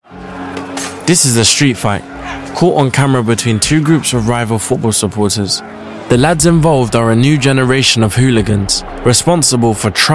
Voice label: Narration